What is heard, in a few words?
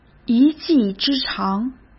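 "一技之长", Mandarin Chinese; chinese flashcards; hsk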